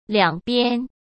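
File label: "两边", Mandarin Chinese; hsk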